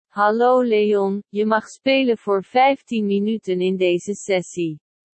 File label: speech sample nl